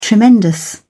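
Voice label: "tremendous", British English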